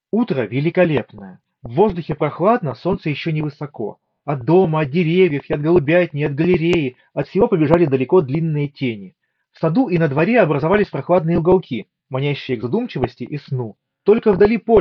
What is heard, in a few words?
Mic; earfun clip 2